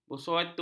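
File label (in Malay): "bersuatu", Malay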